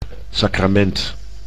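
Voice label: "sacrament", Dutch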